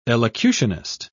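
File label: "elocutionist", British English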